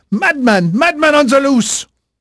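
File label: French male, voice; Pirates Of The Caribbean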